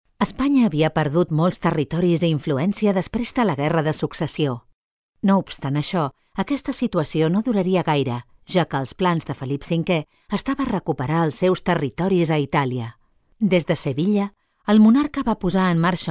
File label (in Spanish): locutora catalana catalan voiceover